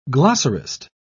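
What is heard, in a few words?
"glossarist", English, British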